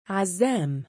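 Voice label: "3azzaam" male, Arabic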